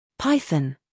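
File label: "scandal", American; python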